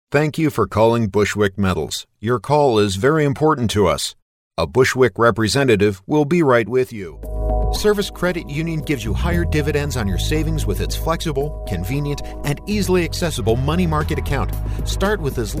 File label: Telephone